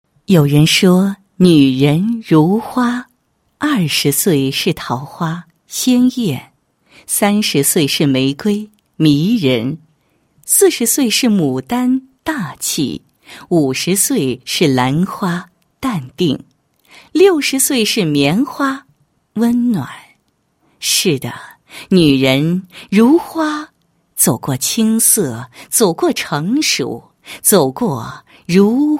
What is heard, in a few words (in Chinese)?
女 解说; 好女人是什么